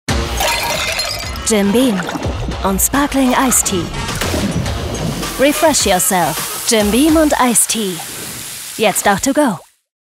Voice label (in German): Werbung